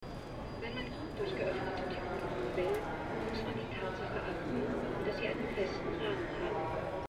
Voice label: indoor announcements airport announcer